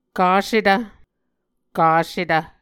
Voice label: "kasetv", Muscogee

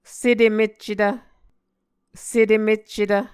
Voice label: "setem-eccetv", Muscogee; Mvskoke Words with Repeated Consonants; A Dictionary of Creek/Muskogee; SIL International

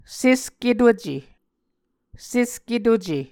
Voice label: "sesketuce", Muscogee

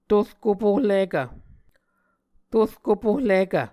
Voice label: "torkop-ohlikv", muscogee

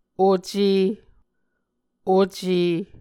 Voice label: "oce", Muscogee

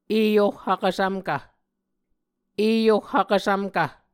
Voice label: "eoh vkvsvmkv", Muscogee; Mvskoke Words with Voicing Consonants; A Dictionary of Creek/Muskogee; SIL International

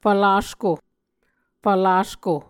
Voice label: "fvlasko", muscogee

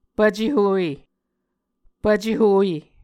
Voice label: "pvce howe", muscogee

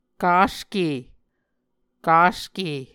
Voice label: "kaske", Muscogee

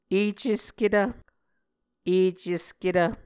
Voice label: "ecesketv", muscogee

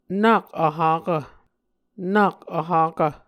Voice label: "nak vhakv", Muscogee

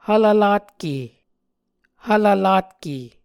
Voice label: "hvlvlatke", Muscogee

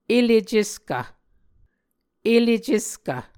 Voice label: "ele ceskv", Muscogee

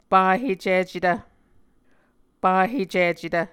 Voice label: "pahecicetv", Muscogee

